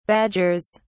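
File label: "badgers", British English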